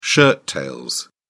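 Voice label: "shirt tail", British English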